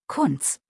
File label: "Kunz", deutsch nachnamen